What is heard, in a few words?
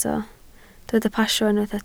"pasio", Welsh